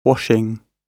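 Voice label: "washing", British English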